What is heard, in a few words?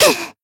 Voice damaged jp; tsukiha